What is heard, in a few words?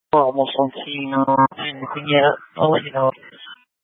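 Incident Tac 1, Scanner audio recording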